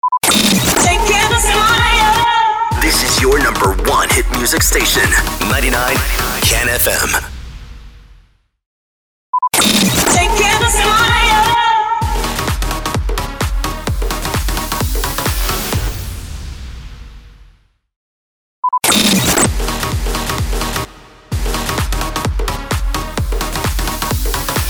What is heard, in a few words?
sweeper, taking us higher